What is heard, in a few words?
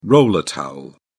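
"roller towel", English, British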